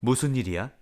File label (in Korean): "무슨 일이야", Korean; hello in korean